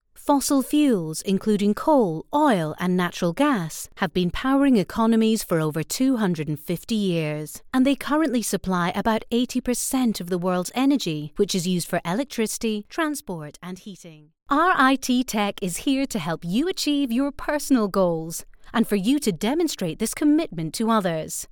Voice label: Scottish narration and explainer samples